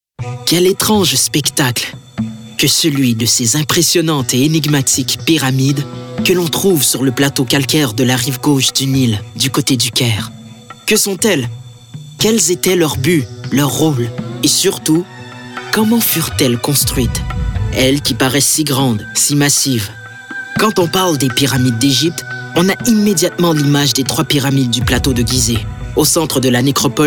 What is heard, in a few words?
Narration